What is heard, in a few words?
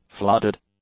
"flooded", english; adjectives